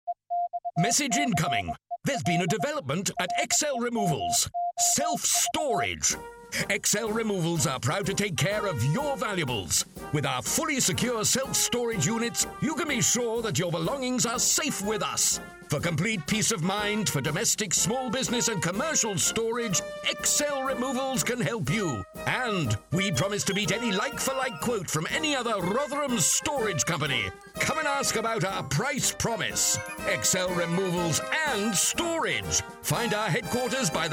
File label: advert